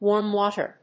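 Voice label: "warm water", English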